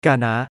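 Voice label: "กาน้า", Thai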